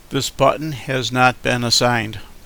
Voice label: button not assigned, Male English